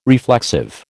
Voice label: "reflexive", American

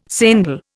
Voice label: "sendal", Indian

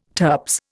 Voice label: "turps", Indian

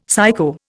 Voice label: "psycho", Indian; Dictionary

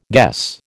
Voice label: "guess", American

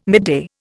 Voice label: "midday", Indian

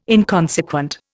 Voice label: "inconsequent", Indian

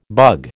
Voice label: "bug", American

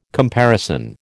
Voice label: "comparison", American

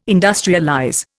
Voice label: "industrialize", Indian

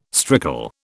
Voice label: "strickle", British

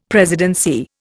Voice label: "presidency", Indian; Dictionary

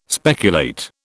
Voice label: "speculate", British

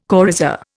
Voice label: "coryza", Indian

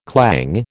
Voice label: "clang", American

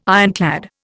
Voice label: "ironclad", Indian

